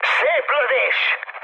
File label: player killed enemy, mood high, speech hun